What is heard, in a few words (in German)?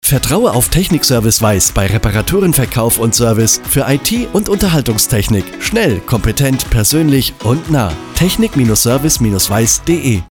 Funkspot; Technik Service Weis